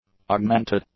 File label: "augmented", English; adjectives